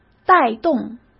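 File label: "带动", Mandarin Chinese; chinese-flashcards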